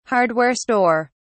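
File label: "hardware store", British English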